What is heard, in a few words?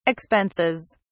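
"expenses", English, British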